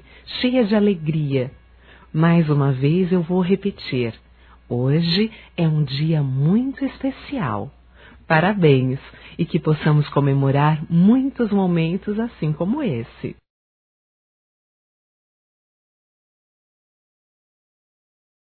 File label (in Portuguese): Data Comemorativa Geral Feminino, Telemensagens